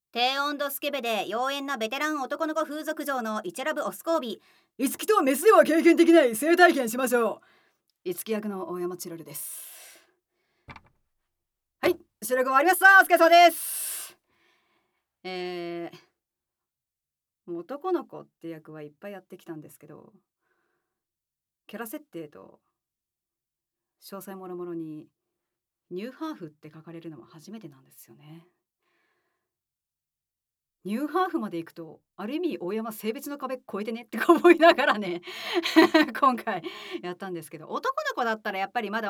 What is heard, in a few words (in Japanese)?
フリートーク, ASMR